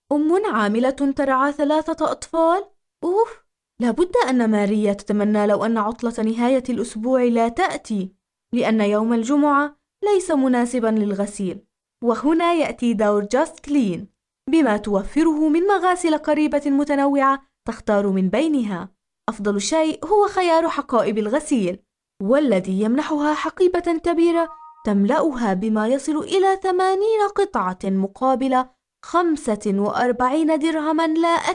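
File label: Arabic, female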